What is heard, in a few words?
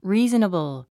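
"reasonable", English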